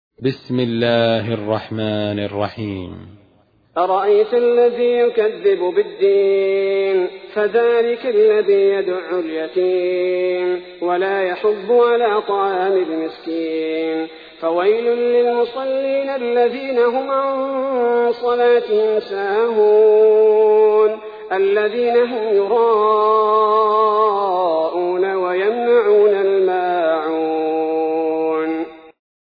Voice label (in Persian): ترتیل سوره ماعون; الثبیتی